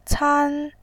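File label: "can", Mandarin Chinese, first tone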